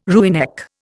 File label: "rooinek", Indian